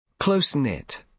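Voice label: "close knit", British English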